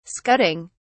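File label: "scudding", English, British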